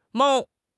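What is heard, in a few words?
"mao1", Mandarin Chinese; HSK1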